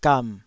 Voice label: "gam3", taiwanese syllable